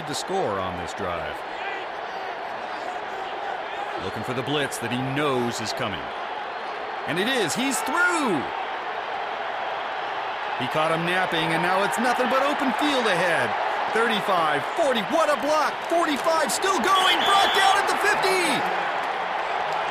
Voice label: Television; Football Game With Announcer, Crowd And Players. From Close.; sports